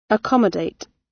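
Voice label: "accommodate", British; Language, linguistics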